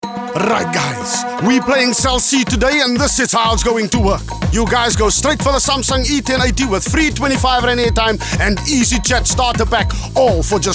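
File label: english south african male commercial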